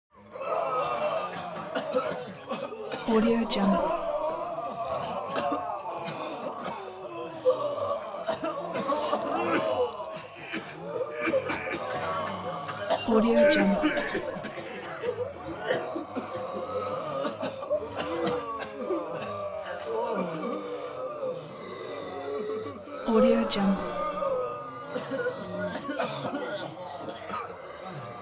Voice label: human crowd walla ill